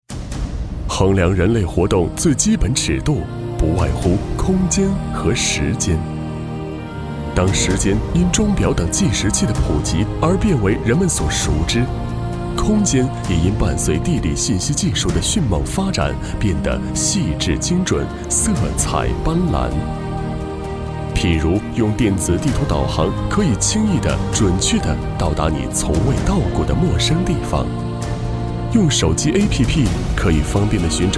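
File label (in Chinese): 男国大音-招商宣传片; 德清地理信息小镇; 击云破晓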